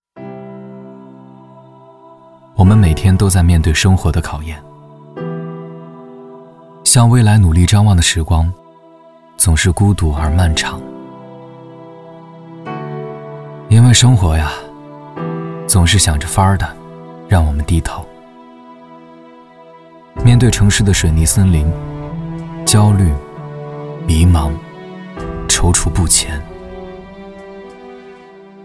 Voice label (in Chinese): 男 旁白 叙述; 点亮生活的光